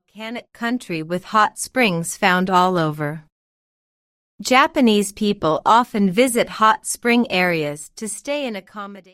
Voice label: translate sample english slow